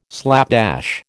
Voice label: "slapdash", American